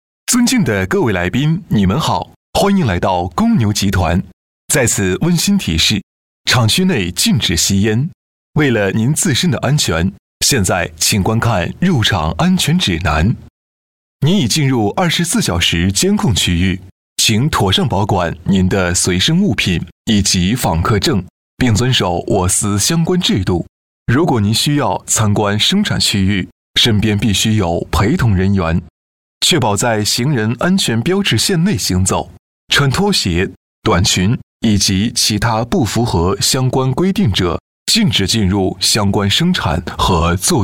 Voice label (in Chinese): 男 入场指南温馨提示; 集团企业宣传; 公牛集团